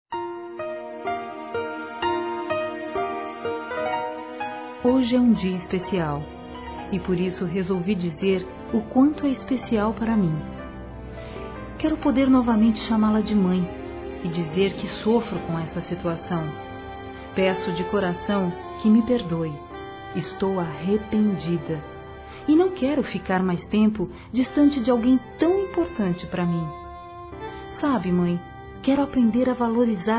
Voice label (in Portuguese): PERDÃO MÃE F, Telemensagens, Dia das Mães; Telemensagens 2017